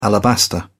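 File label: "alabaster", British English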